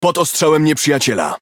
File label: Speech Pilots Bomber Under Attack, PL; World Of Warships